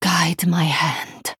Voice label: Female Draenei Thinking